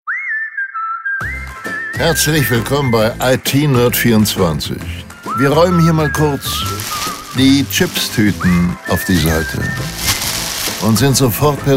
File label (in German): Ansage ivr; Telefonansage; IT – Systemhaus – Computer – Software – Hardware – PC Spezialist; 1a-telefonansagen